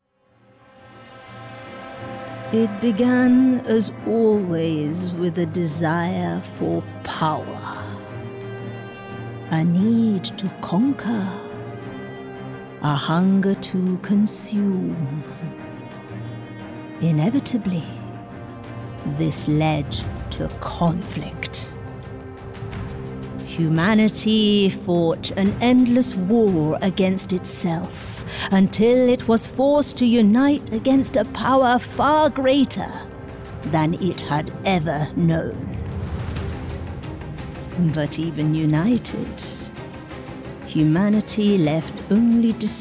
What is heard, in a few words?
Character; Strong Woman